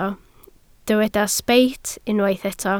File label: "sbeit", Welsh